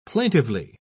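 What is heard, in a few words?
"plaintively", British English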